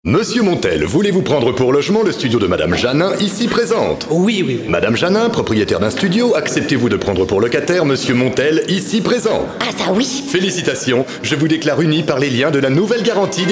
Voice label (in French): emphase le maire mariage